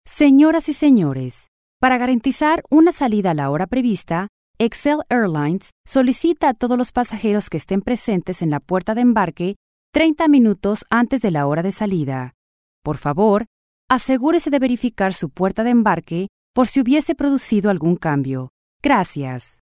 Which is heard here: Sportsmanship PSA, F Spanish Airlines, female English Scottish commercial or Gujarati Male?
F Spanish Airlines